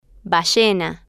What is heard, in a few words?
"ballena", Spanish